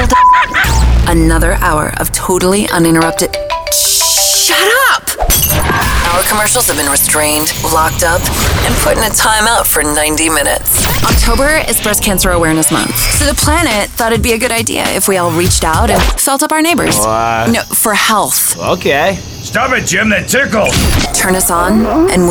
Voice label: radio rock, radio imaging